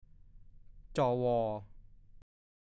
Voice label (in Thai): "จ๋อย", ภาษาโคราช; คนตัวเล็ก ผอมบาง